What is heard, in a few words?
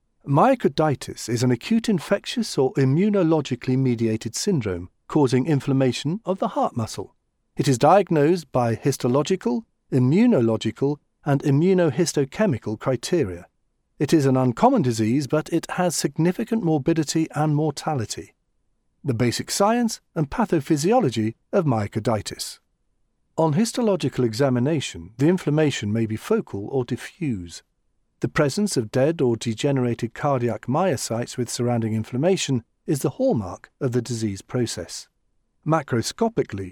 Medical E-Learning